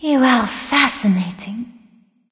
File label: vox emote; smite; arachne